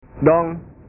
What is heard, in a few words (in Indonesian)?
"dong", bahasa